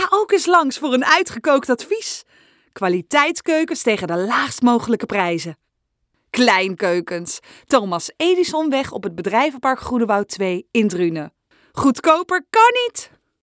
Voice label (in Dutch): Commercial Keuken